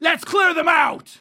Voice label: Dwarf Kill Enemy